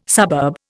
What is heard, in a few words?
"suburb", Indian